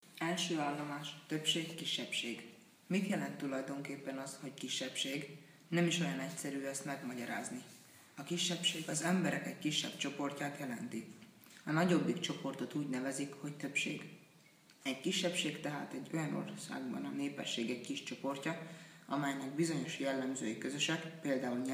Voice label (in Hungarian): magyar